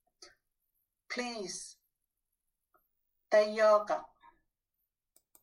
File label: "Please", Kiribati; Kiribati LW